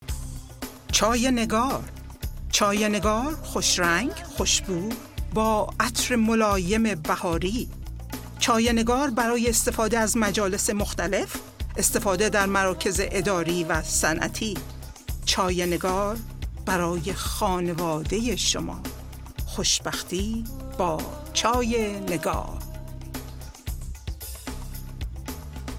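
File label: FA Commercial, Persian; GoLocalise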